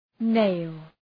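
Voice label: "nail", English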